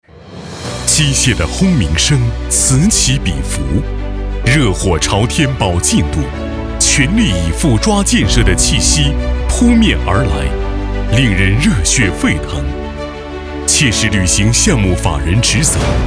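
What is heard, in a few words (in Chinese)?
男 大气磁性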